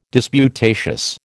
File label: "disputatious", American